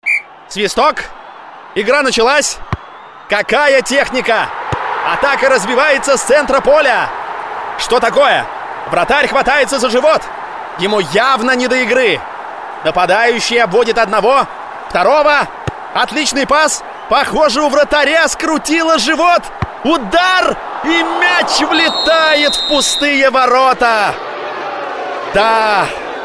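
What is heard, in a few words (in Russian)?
Sportivnyj kommentator, sports commentator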